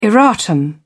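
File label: "erratum", British English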